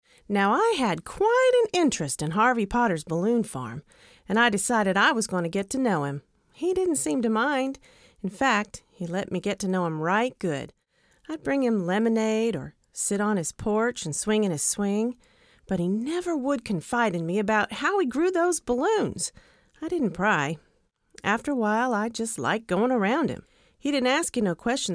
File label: Childrens content